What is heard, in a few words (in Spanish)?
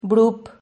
"brup", Silabas